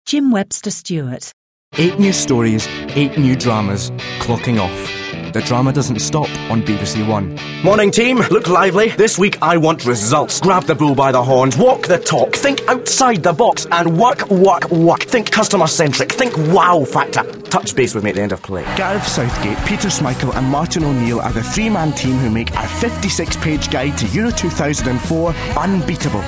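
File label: english scottish male character; voice demos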